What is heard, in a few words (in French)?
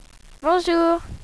"bonjour", France; le français; Paris